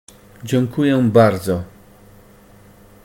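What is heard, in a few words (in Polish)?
"dziękuję bardzo", Polish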